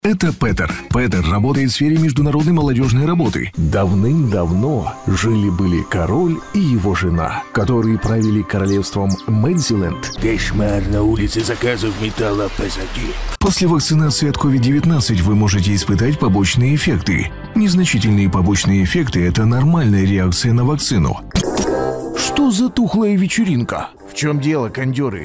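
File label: Russian male commercial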